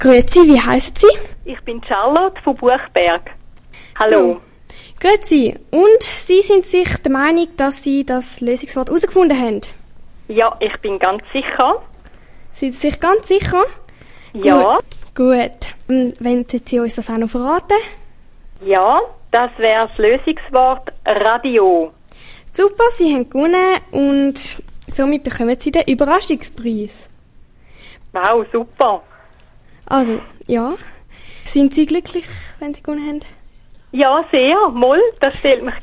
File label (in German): Quiz Antwort via Telefon; OS Rüdlingen; orientierungsschule ruedlingen buchberg